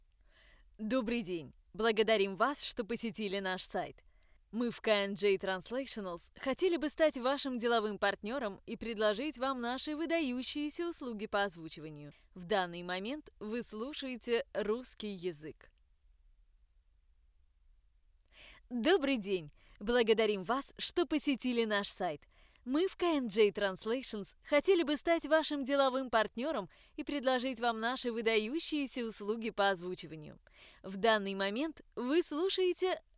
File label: russian female